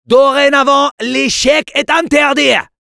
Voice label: jeers fr; Medic

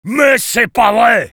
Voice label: negative vocalization, fr

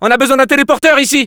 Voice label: need teleporter, fr; Scout

